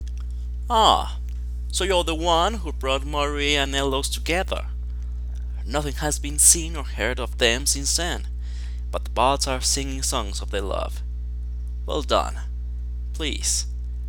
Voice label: breton, voice auditions